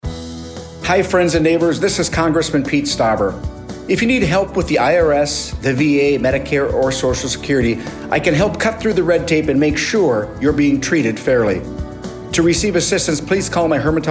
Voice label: Radio Mix; ConstituentServices